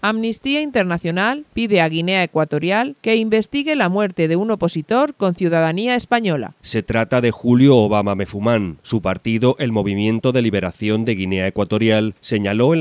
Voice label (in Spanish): informativo; guinea ecuatorial muerte bajo tortura